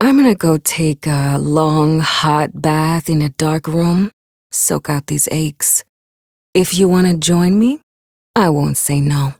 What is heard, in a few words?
Ambient Barks, en; Warframe; Mall Romance; Lettie